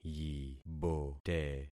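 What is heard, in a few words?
"yibote", concatenated syllables; Affixing experiment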